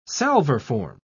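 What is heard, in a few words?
"salverform", British English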